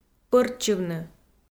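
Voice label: "пӧрччывны", Komi, female; kpv audio dict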